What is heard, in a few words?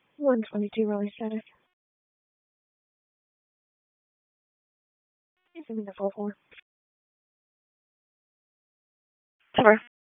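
Police Southwest District Dispatch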